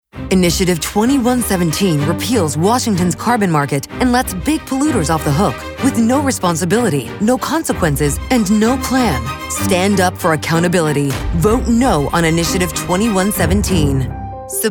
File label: women political